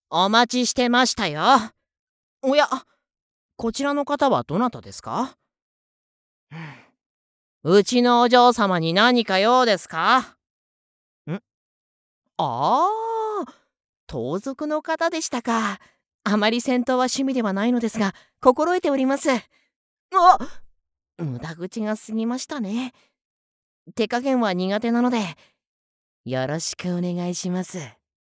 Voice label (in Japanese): 異世界系執事キャラ